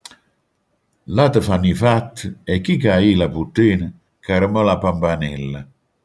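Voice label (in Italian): "pampanella", Manfredonia dialect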